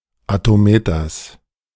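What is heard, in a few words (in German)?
"Attometers", German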